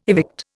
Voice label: "evict", Indian